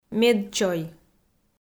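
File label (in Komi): "медчой", Komi-Zyrian, female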